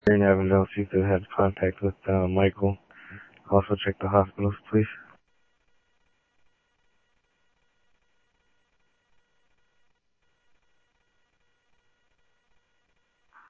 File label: Sheriff-WestCnty, scanner audio; MCSO - WEST; ThompsonPeakScot